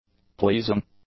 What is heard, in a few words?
"pleasing", english; adjectives